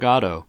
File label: "Godot", en-us; Waiting For Godot